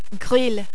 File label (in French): "gril", French